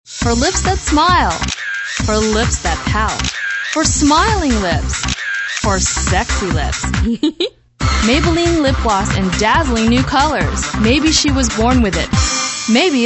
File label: commercials